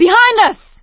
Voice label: watch out behind, survivor voice; Left 4 Dead 2; producer